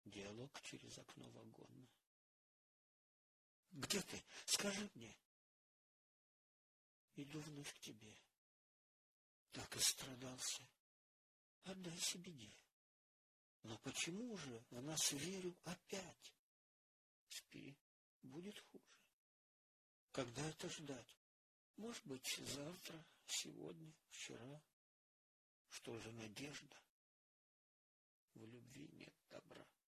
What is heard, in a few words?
dialog